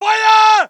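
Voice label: voice german infantry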